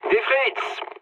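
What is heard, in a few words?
French enemy detected mood low; War Thunder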